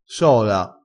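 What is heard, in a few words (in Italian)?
"sola", vocabolario dialettale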